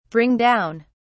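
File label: "bring down", British English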